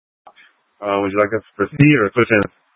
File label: Light Rail Emergency, scanner